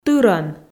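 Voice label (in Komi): "тырӧн", Komi, female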